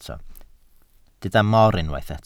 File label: "mor", Welsh